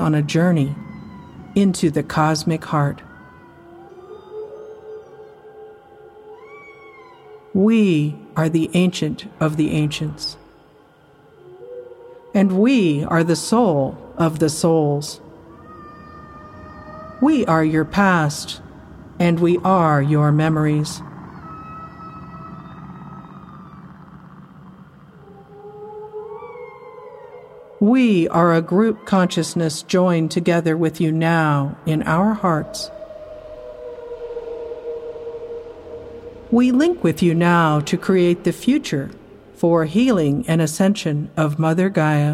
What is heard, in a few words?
Whale Guided Meditation